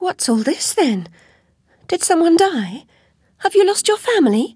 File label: sorry, voice commands; svencoop; Alice